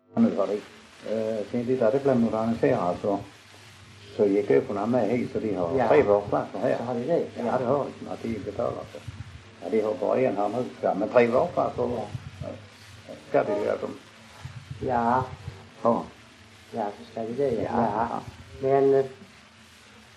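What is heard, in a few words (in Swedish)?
Munka-Ljungby Skåne, dialekter svenska sagor; Folkminnen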